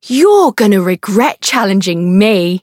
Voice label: variable chant; tiramisu